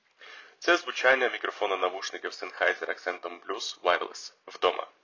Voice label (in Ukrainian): sennheiser accentum plus wireless vdoma; Sennheiser Accentum Plus – це бездротові повнорозмірні навушники та новинка 2024 року.